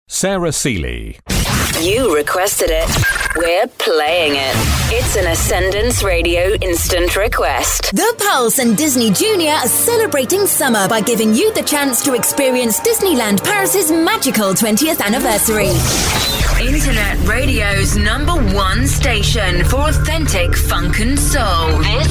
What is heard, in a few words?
imaging showreel